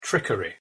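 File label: "trickery", English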